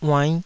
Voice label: "uainnh5", taiwanese